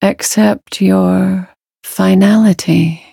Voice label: loading, en; Cookie Run

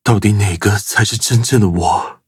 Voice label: loading, zh-hant; Cookie Run

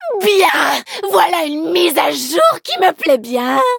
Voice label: level up, fr

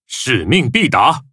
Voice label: loading, zh-hant; Cookie Run

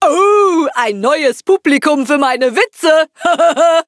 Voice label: battle de

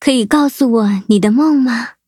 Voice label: loading, zh-hant; cookierun

